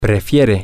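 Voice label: she prefers, Spanish, male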